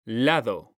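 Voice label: "lado", Spanish, male; mekanta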